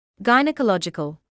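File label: "gynaecological", British English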